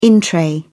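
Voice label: "in-tray", British English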